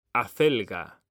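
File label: "acelga", Spanish, male; mekanta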